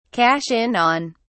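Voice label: "cash in on", British English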